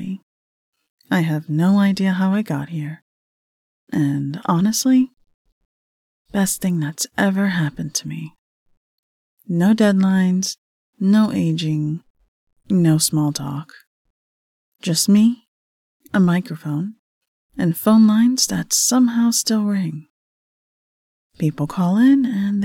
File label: Midnight Radio